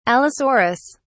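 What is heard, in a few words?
"allosaurus", British English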